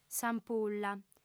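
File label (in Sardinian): "s'ampulla", female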